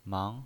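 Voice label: "mang", pinyin voice, Mandarin Chinese, second tone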